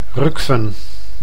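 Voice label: "Rucphen", Dutch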